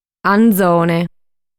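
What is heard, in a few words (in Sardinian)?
"Anzone", Sardinian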